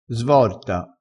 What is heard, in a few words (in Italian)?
"svorta", crespinese